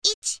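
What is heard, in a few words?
"ichi", Japanese female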